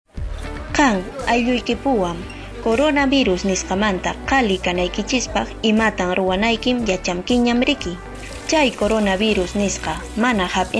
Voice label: quechua cusco collao, spot de radio; recomendaciones generales; medidas de prevencion

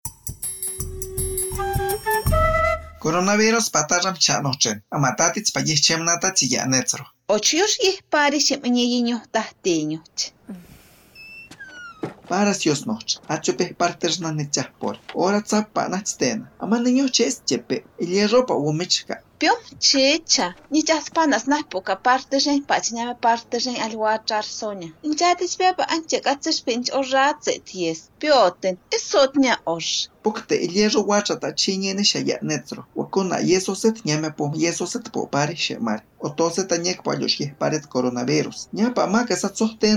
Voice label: yanesha 2 voces, spot de radio; tomar medicamentos con precaucion; sospecha o confirmacion de contagio

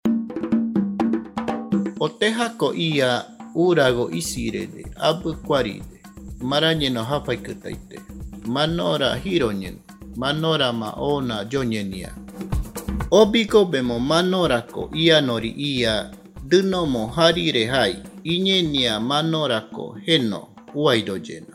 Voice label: murui muinani, spot de radio; sospecha o confirmacion de contagio; en caso de presentar sintomas

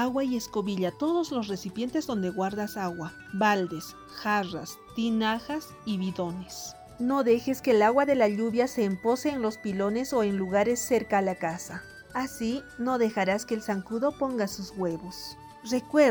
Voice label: castellano pista andina que cuidados debo tener dengue, spot de radio; sospecha o confirmacion de contagio

